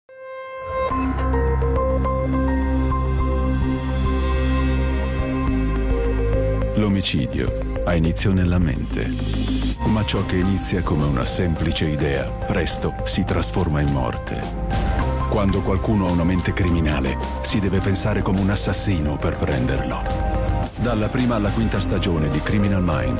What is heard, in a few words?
Commercial